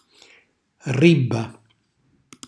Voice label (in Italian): "rribba", Calabrese; riva; cucina calabrese